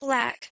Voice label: "blaec" Anglo Saxon